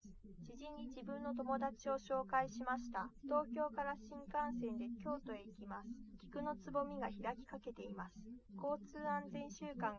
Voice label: female liverec 130ms 5cm sim